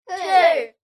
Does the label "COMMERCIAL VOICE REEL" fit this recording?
No